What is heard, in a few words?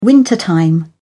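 "wintertime", British English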